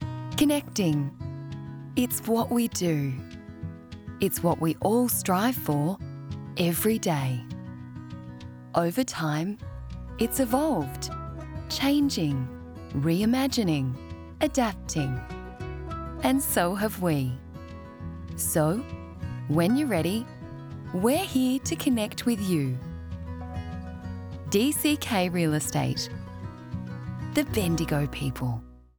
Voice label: real estate promo